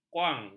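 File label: "kuang", Malay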